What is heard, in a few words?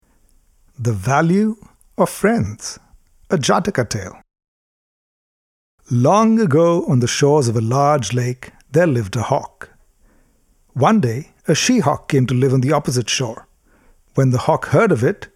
Narration